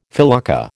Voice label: "felucca", American